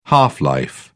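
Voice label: "half-life", British English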